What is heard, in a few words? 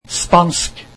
Spanish, norwegian